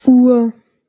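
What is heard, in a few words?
"Uhr", German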